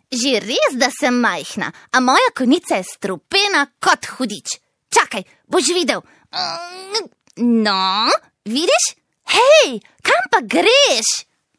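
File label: slovenian female character; voice demos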